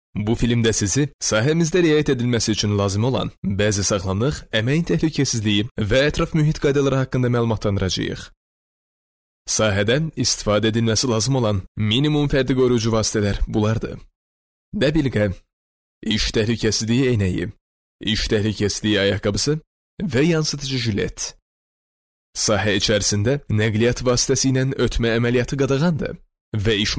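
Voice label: Azerbaijani male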